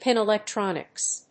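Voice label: "pin electronics", English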